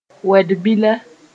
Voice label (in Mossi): "wed-bila", moore